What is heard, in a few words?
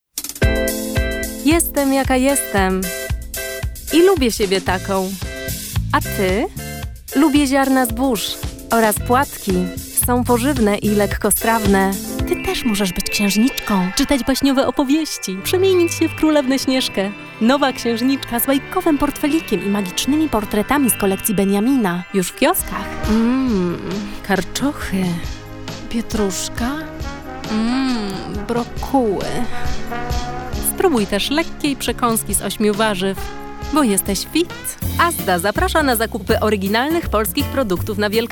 female polish commercial compilation